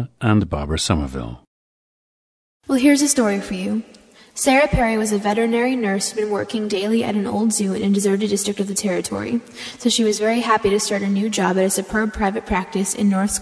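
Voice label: virginia, International Dialects of English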